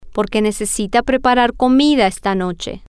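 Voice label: because you need to prepare food, Spanish, female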